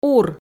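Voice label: "ур", Komi, female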